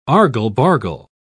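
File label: "argle-bargle", British English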